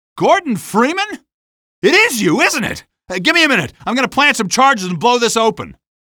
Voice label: male; streetwar; tunnel